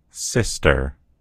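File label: "sister", American English; gcompris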